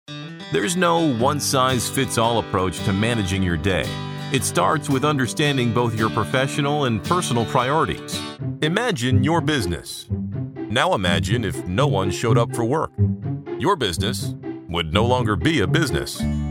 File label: Narrations